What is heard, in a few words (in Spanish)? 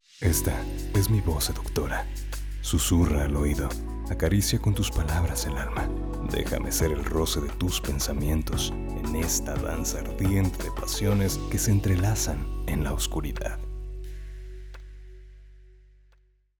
Seductora